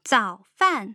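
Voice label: "zaofan", Mandarin Chinese tone pair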